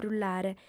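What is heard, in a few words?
"brullare", Sardinian, female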